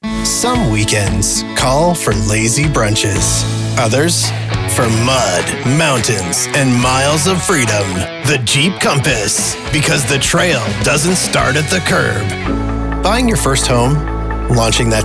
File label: Commercial, American Voice Over